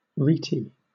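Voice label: "rete", British English; pronunciations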